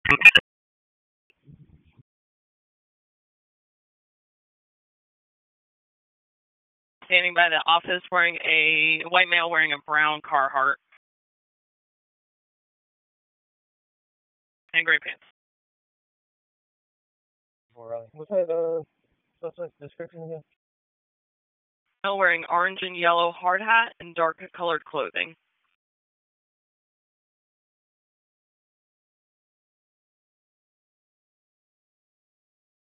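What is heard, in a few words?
Raleigh Police Southeast District Dispatch, p25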